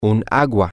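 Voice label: a Water, Spanish, male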